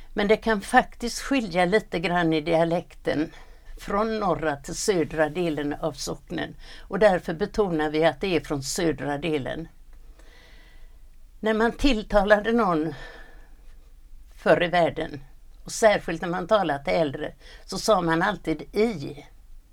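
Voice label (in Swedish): Askim Bohuslän, dialekter; Folkminnen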